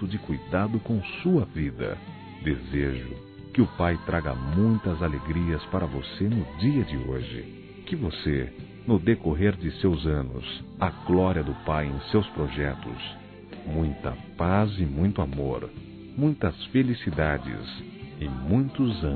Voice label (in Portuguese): Irmã Masculino, Aniversários; ANIV. Evangelico